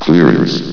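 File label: "clearance", male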